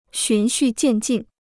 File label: "循序渐进", Chinese